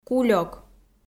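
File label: "кулёк", Komi, female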